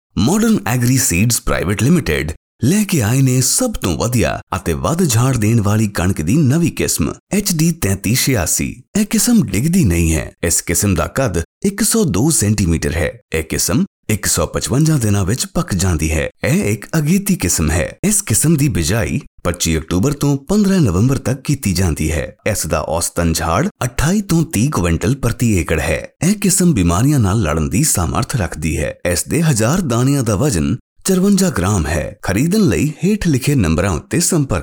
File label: Punjabi commercial corporate